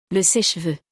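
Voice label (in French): "Le seche cheveux", French; vocabulaire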